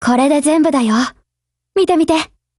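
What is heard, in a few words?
OPERATION OVER Japanese, Voice; GF Alarm; Colt SCW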